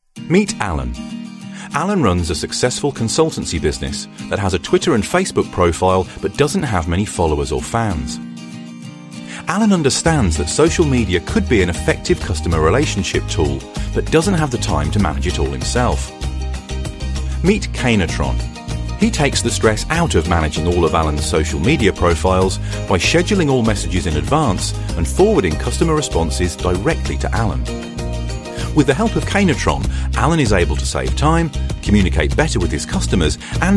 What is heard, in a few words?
english british e-learning; english british voice actors